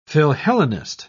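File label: "philhellenist", British English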